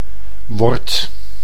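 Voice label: "word", Dutch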